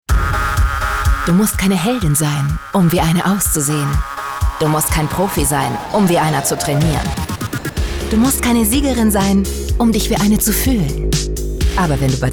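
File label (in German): Werbung Dynamisch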